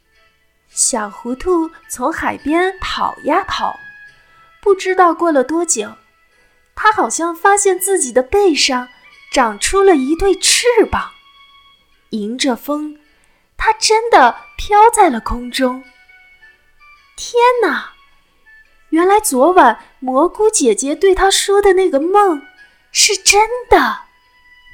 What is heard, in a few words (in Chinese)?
童话配音